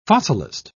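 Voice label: "fossilist", English, British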